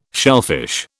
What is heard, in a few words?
"shellfish", British